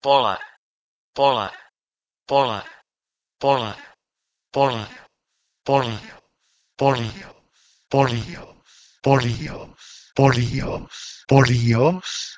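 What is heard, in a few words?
Proto-Indo-European polh1 to Ancient Greek polios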